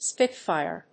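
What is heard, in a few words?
"spitfire", English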